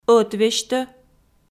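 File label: "ӧтвесьтӧ" Komi, female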